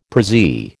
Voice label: "prezzie", American